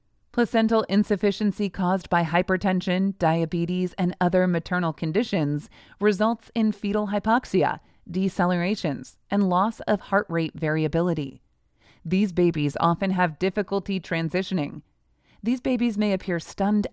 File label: Medical Narration